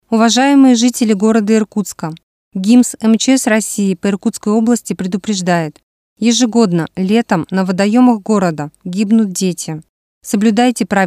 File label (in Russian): ОБРАЩЕНИЕ МЧС; администрацию г. Иркутска